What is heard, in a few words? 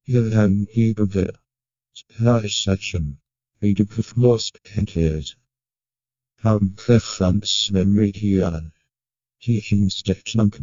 whisper illegible text, ambiance